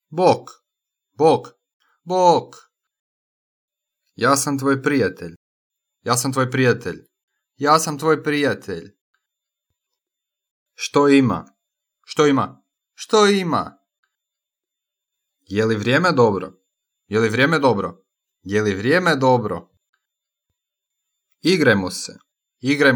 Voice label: vox cr man